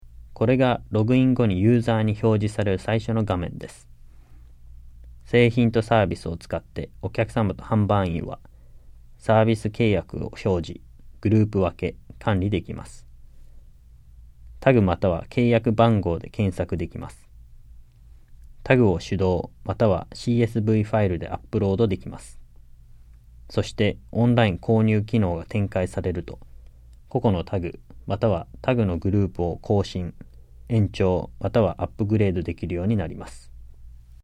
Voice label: JAPANESE; delltranslations